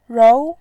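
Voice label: "rou", Mandarin Chinese, second tone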